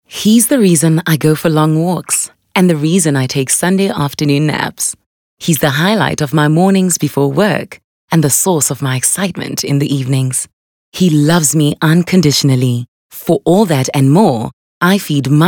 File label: English conversational